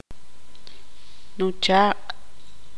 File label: tzutujil; hermano menor